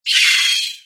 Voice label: vox exclaim; Voice Talk